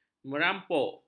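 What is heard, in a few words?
"merampok", Malay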